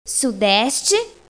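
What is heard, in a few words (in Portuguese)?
"sudeste", Portuguese; GEOGRAFIA; bussola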